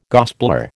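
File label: "gospeller", American English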